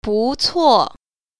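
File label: "bu2cuo4", Mandarin Chinese Tone Pair Drills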